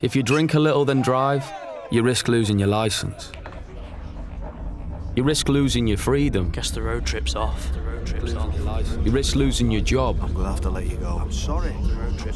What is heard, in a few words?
Voices and FX, Sound Advert